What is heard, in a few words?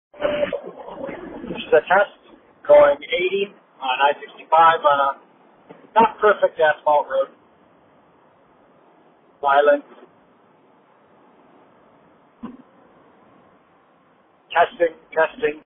voicemail; d2b v60 bluetooth phone kit; w210